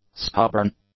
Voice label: "stubborn", English; adjectives